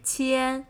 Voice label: "Qian", Chinese